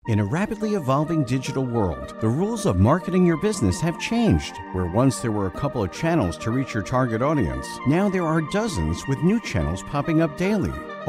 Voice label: english american explainer videos